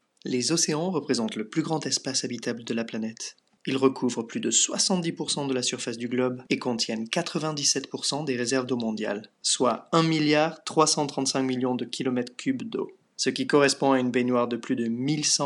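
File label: French narration casting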